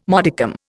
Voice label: "modicum", Indian

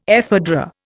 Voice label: "ephedra", Indian

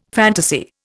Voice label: "fantasy", Indian

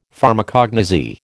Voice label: "Pharmacognosy", American

